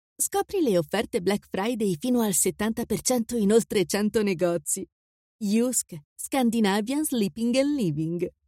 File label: female italian voice over sales